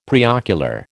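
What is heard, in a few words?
"preocular", American English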